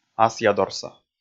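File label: "асъядорса", Komi, male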